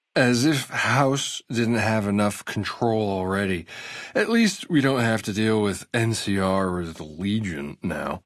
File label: male adult hello, dialogue